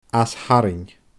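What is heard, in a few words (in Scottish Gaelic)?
"aas tharraing", Scottish Gaelic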